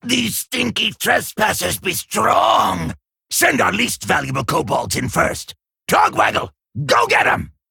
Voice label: Male Kobold

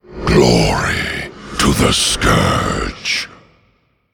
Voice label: Male Undead Bark; Arthas

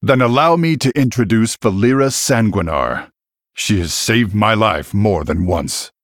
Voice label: Male Human In Game Turn; Varian

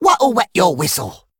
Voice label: Male Dredger Play

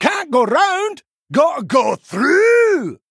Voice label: Male Dwarf Play

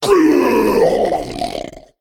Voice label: Male Abyssal Death

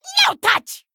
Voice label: Male Kobold Attack

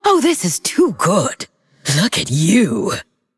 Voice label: Female Undead

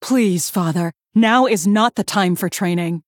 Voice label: Female Human; Story Hero Cariel; BOM Cariel Mission3 Exchange

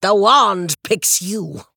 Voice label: Female Human Attack

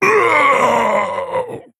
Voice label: Male Fel Orc Death

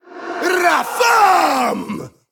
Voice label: Male Ethereal Attack

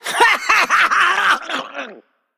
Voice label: Female Undead Death

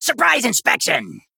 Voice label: Male Goblin Attack

